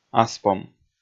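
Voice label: "аспом", Komi, male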